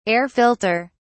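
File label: "air filter", British English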